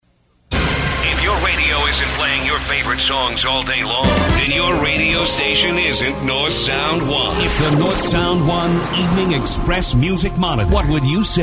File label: Sweepers; for Northsound One